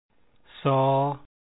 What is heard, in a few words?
s sound, lao consonant sounds